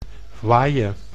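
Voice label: "voile", Dutch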